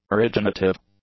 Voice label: "originative", English; adjectives